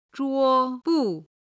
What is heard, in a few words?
"zhuo bu", Chinese